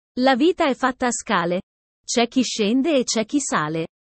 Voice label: italian sayings about life